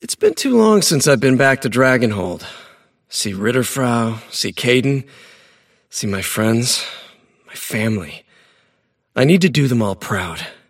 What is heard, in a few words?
monologue; davion; dota